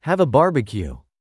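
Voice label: "have a barbecue", English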